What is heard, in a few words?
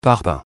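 "parpaing", French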